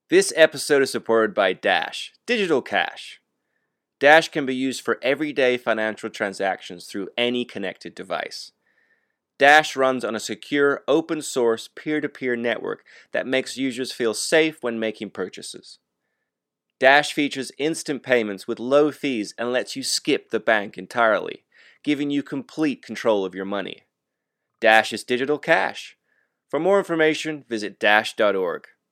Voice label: Mid-roll Post-roll Generic US